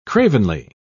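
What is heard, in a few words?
"cravenly", English, British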